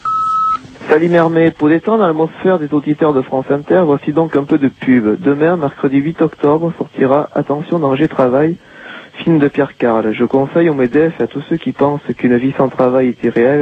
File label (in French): Danger travail repondeur